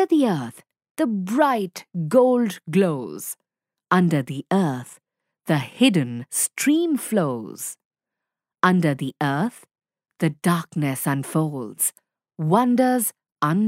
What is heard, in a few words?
Digging Deep Reading Text; FSPE 3 Unit 10